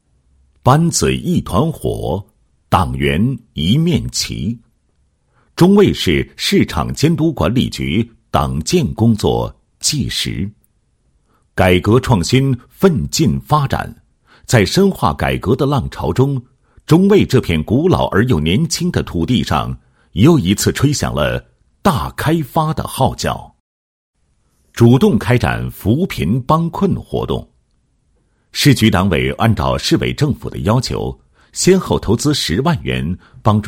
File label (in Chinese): 男-党建-市场监督管理局党建工作记实, 配音案例